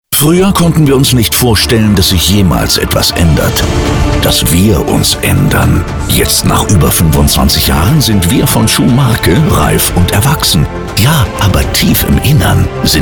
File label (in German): werbung funk; SCHUHMARKE